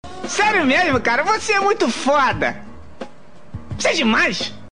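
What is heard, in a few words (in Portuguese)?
"foda", Portuguese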